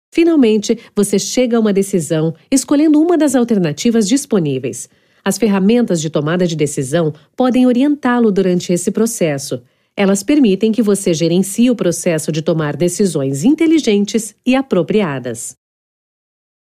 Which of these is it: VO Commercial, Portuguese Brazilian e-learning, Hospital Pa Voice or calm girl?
Portuguese Brazilian e-learning